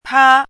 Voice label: "pā", hanzi